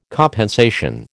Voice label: "compensation", American